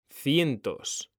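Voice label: "cientos", Spanish, male; mekanta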